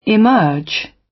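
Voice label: "emerge" British; Design and innovation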